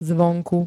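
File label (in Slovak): "zvonku", Slovak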